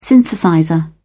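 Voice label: "synthesizer", British English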